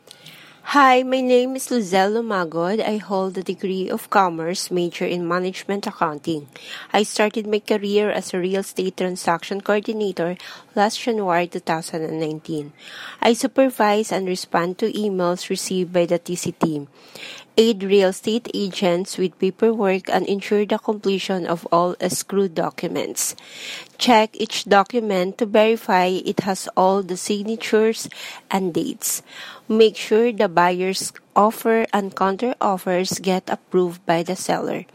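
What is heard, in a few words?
Voice Recording, Self Introduction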